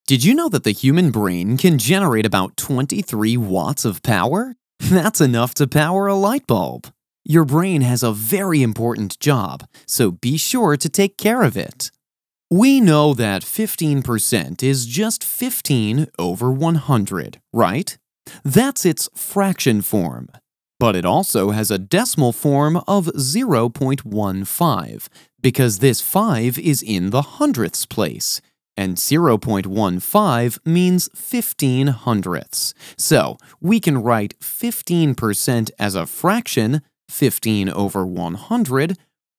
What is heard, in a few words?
Corporate Reel